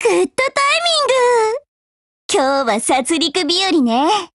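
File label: HELLO Japanese, Voice; GF Alarm; Dreamer buhuo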